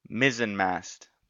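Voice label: "mizzenmast", US English; pronunciations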